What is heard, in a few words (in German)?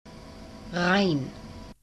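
"rhein", German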